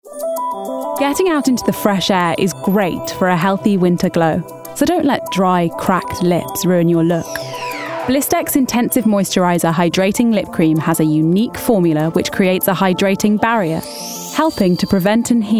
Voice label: COMMERCIAL; Blistex